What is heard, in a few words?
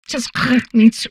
humanoid female; Stellaris; humanoids